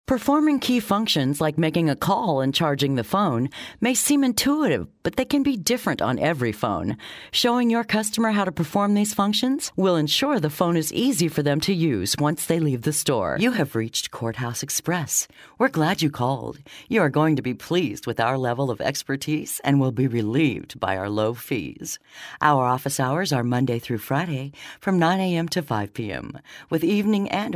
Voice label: Narrative